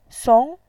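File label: "song", Mandarin Chinese, second tone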